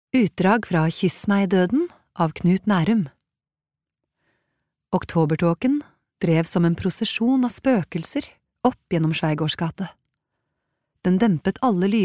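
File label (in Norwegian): lydbok, Norwegian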